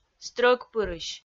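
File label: "стрӧгпырысь", Komi, female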